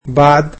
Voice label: "after", egyptian

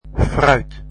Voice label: fruits, dutch

